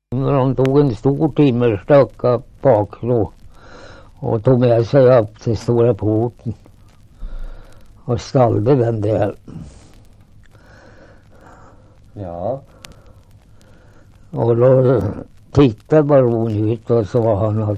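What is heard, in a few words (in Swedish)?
Svärta Södermanland, dialekter; Folkminnen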